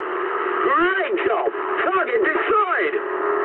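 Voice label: English bomb success mood high; War Thunder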